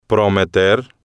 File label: "prometer", spanish